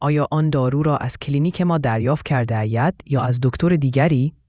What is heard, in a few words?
persian